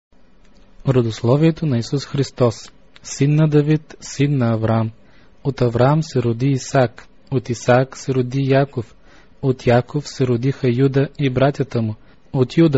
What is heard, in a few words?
bulgarian bible